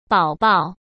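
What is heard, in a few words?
"宝宝", Mandarin Chinese; chinese-flashcards; hsk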